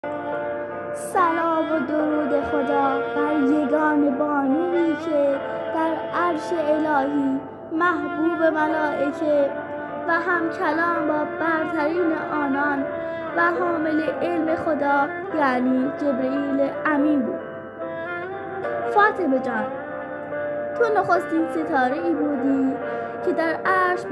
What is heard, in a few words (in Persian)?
دکلمه خوانی; در وصف بانوی دو عالم حضرت زهرا (س); خراسان شمالی; فاطمه زهرا بانوی مظلوم دو عالم